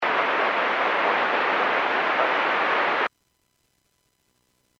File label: Airports 122.7, Radio; KSTE